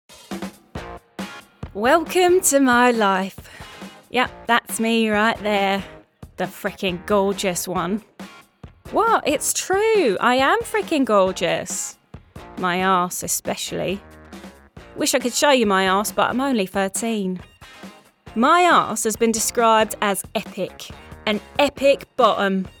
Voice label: Cockney (Spunky)